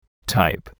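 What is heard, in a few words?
"type", English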